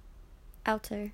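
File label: "alto", AUS, female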